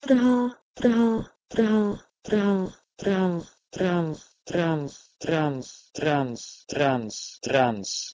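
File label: Proto-Indo-European trh2 to Latin trans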